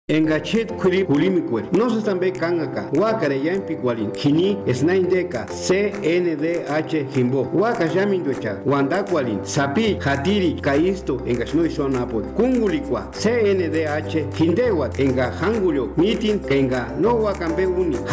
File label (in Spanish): Spot purepecha; Campanas; CNDH